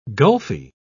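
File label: "gulfy", British English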